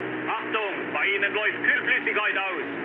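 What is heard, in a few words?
German damaged water mood med, speech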